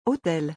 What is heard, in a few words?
"hôtel", French